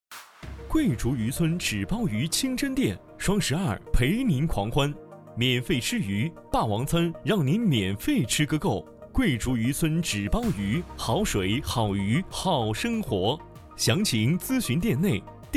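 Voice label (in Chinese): 促销广告混音; 桂竹渔村乐天混音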